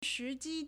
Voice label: "shi2ji1", Chinese

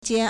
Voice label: "jian1", Chinese

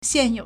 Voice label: "xian4you3", Chinese

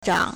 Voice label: "zhang3", Chinese

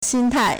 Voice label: "xin1tai4", Chinese

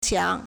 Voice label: "qiang2", Chinese

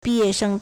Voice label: "bi4ye4sheng1", Chinese

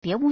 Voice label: "bie2wu2", Chinese

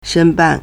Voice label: "shen1ban4", Chinese

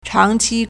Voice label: "chang2qi1", Chinese

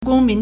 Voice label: "gong1 min2", Chinese

